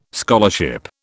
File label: "scholarship", British